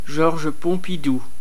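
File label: Georges Pompidou, French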